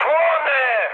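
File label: Polish on fire mood high; War Thunder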